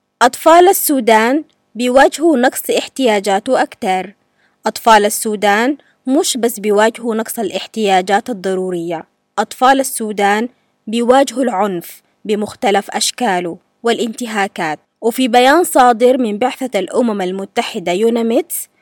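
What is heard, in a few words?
Arabic, General, Sudanese; GoLocalise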